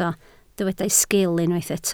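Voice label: "sgil" Welsh, spoken word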